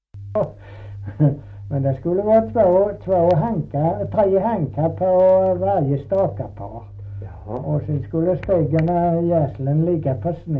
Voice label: Kristianopel BLEK, dialekter; Folkminnen

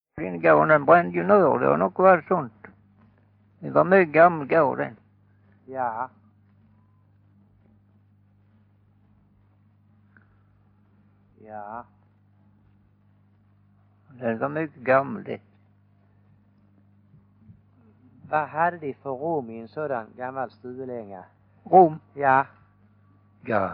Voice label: Färingtofta, dialekter; Folkminnen

